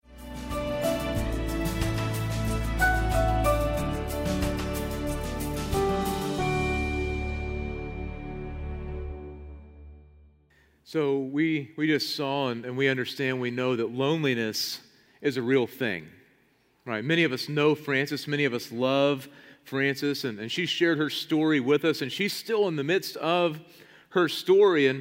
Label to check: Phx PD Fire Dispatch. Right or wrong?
Wrong